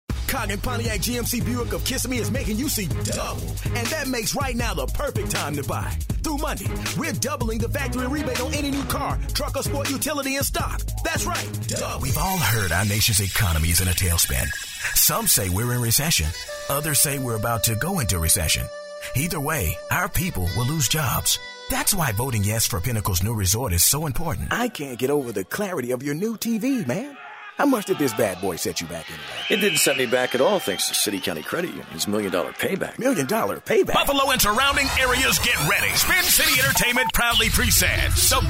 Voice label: Commercial, voice